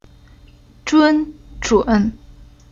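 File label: "Zhun", Chinese syllable; Уроки фонетического блока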